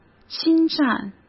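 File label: "侵占", Mandarin Chinese; chinese-flashcards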